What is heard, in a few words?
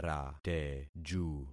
"rateju", concat syllables; Affixing experiment